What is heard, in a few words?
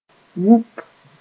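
"wup", Mooré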